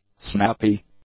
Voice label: "snappy", english; adjectives